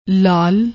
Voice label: "laal", Punjabi